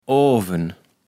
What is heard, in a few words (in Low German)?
"Ooven", Platt